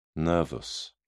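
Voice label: "nervus", British English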